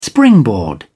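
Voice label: "springboard", English, British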